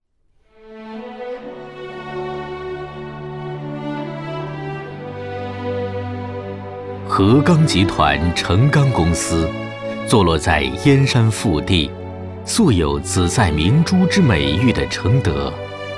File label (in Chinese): 【专题】, 国语; 钢铁企业